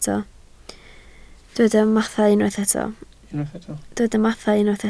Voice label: "mathau", Welsh